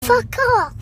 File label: kid saying fuck off